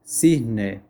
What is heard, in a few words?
Swan spanish